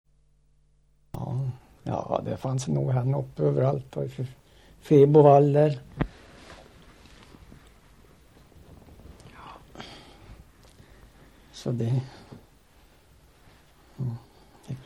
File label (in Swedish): Skuttunge, dialekter; Folkminnen; Svenska sagor